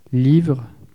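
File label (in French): "livre", French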